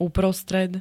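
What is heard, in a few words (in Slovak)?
"uprostred", Slovak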